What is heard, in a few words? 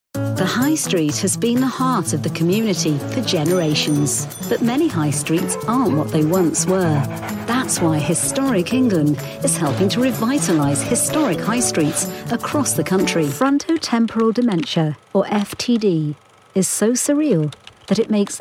explainers